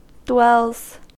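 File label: "dwells", US English; pronunciation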